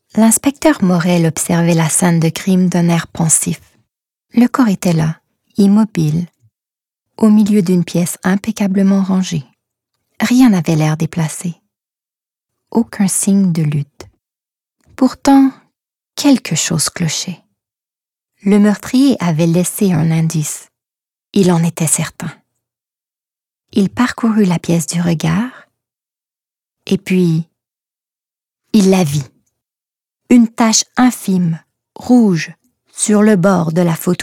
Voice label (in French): Intrigue policiere Calme Intrigante Francais Normatif